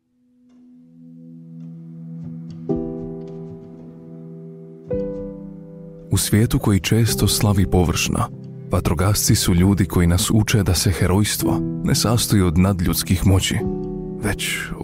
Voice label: Documentary, croatian